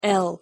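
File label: "l", English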